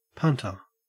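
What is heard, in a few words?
"punter", Australian English; pronunciations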